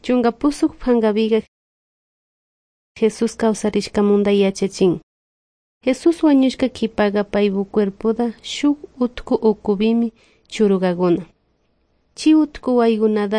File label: good news quichua de salasaca